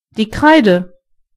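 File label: chalk, German voices words; gcompris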